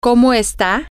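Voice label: how are you, spanish, female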